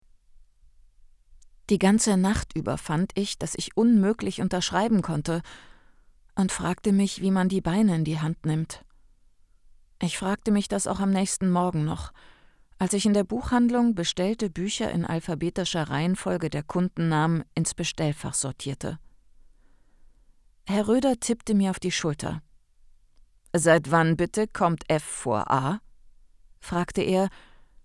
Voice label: Sprachprobe homestudio

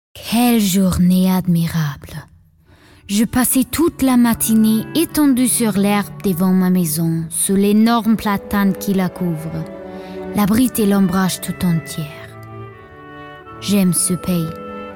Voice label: franzoesisch

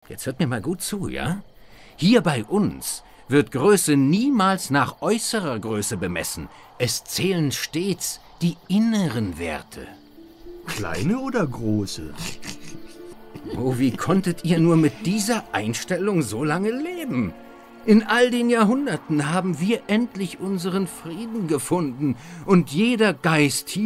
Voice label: hoersp

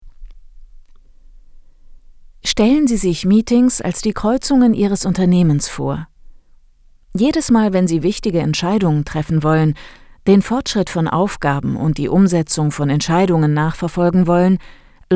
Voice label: erklarfilm

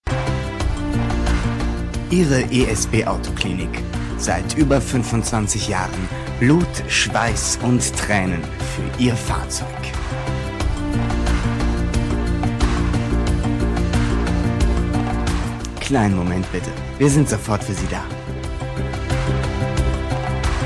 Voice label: warteschleife

